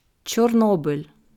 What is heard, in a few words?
"Chornobyl", ukrainische Aussprache